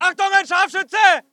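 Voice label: voice german infantry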